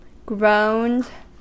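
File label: "groaned", US English; pronunciations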